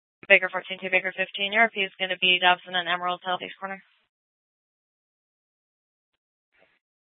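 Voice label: police department Dispatch, scanner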